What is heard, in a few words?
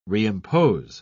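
"reimpose", British English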